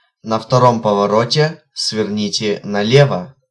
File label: 2nd Left, Russian male